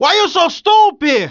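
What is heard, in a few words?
male "hey why you stupid", pedestrian; cs2d; cityrp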